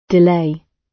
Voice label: "delay", British